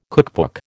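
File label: "cookbook", American English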